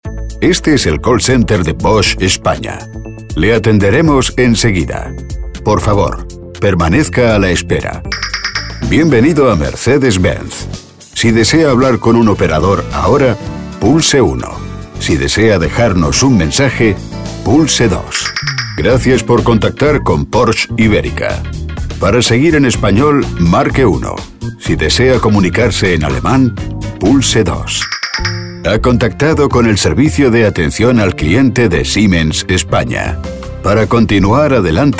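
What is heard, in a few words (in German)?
telefonansagen sprecher Spanish; Native Speaker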